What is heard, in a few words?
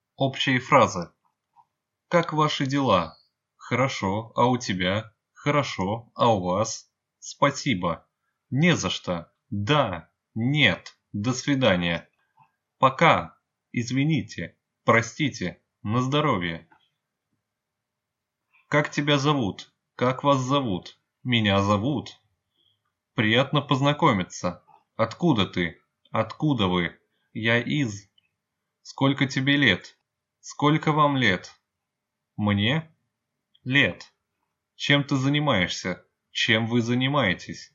common phrases, russian phrasebook